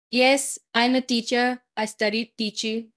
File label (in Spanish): Voice Acting es F0